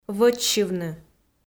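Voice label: "вӧчсьывны", Komi, female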